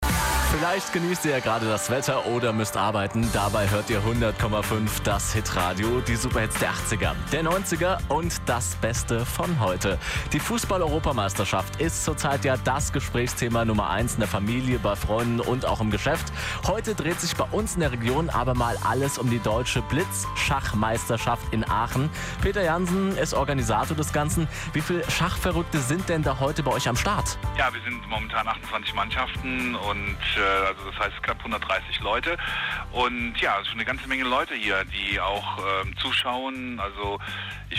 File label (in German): telefoninterview live vor ort; dbmm 2012